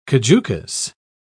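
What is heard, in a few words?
"caducous", British English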